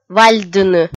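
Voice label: "вальдыны", Komi, female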